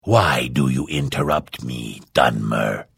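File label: Hello, Vo; Morrowind GOTY GOG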